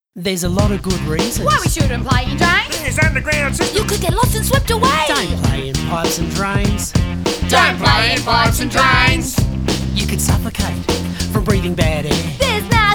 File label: Radio Ad